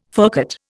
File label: "furcate", Indian